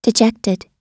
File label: "dejected", us